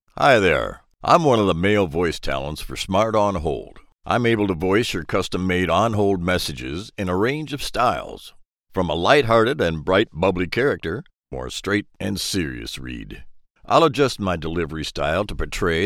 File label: Male; Voice Over artists; Smart On Hold Messages